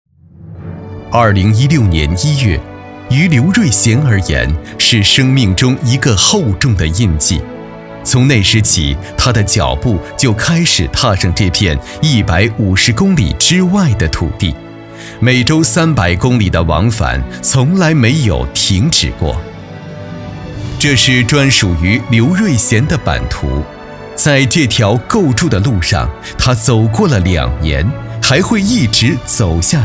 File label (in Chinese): 男 人物专题 基层扶贫